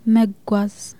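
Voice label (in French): "partir en voyage", Amharic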